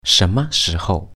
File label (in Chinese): "什么时候", when